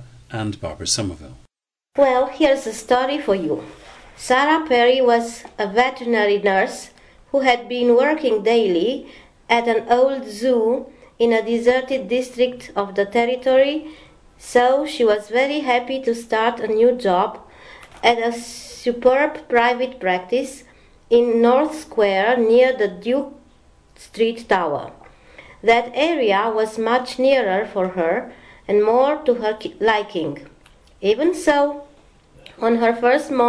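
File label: romania, International Dialects of English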